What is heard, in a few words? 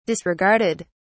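"disregarded", British English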